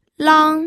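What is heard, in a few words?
"lang", Mandarin Chinese, first tone; pinyin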